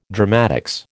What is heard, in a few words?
"dramatics", American